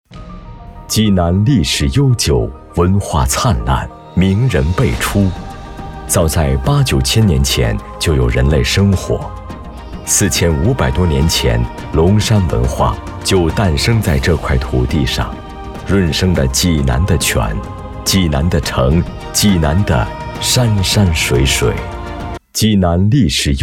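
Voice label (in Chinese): 男国大音-城市; 济南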